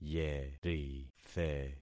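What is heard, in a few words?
"yerithe", concatenated syllables; Affixing experiment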